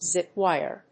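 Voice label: "zipwire", English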